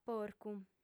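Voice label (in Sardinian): "porcu", female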